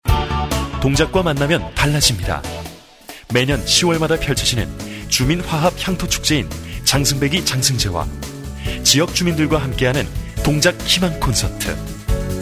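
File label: Korean, male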